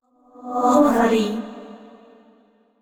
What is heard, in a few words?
neutral short; yamiko